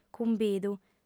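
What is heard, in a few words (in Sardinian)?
"cumbidu", female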